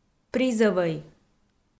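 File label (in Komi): "призӧвӧй" Komi, female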